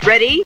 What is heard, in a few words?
"ready", female; Voice; introductions